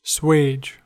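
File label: "swage", American English; pronunciations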